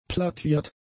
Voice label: "plattiert", German; adjectives

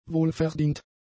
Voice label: "wohlverdient", German; adjectives

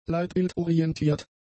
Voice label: "leitbildorientiert", German; adjectives

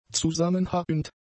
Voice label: "zusammenhauend", German; adjectives

